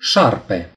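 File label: "snake", Romanian; gcompris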